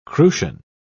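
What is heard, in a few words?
"crucian", British English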